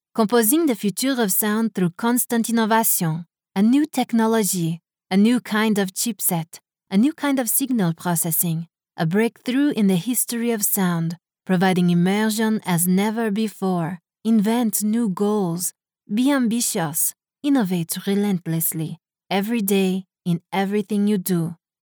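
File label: English US, female, narration french accent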